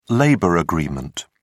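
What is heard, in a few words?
"labor agreement", British English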